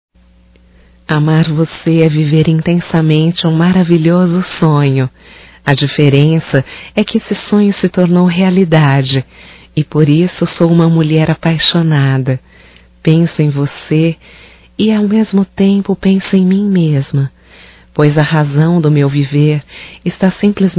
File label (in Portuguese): Romântico Feminino